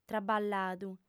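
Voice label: "traballadu", Sardinian, female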